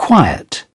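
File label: "quiet", British English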